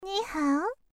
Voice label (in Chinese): "nihao", syozyo